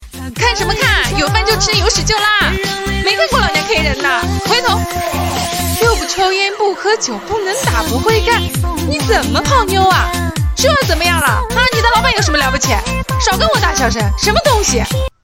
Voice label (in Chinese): 少御音霸气泼辣青春活力